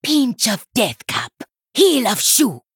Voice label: Female Troll Play